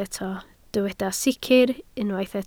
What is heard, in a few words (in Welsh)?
"sicr", Welsh